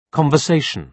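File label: "conversation", British English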